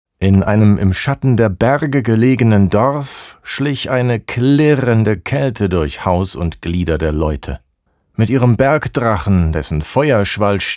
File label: german narration; actor de doblaje profesional